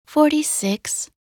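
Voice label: "46", English; minute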